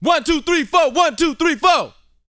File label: COUNT, Vocal, R&B & Dance; ILIO